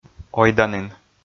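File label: "ойданін", Komi, male; kpv audio dict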